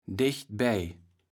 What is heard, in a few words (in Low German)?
"dechtbej", kaevels platt